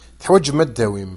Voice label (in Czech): berberština